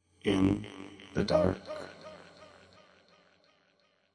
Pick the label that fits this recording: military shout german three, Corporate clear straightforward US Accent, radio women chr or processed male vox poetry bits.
processed male vox poetry bits